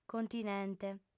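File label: "continente", female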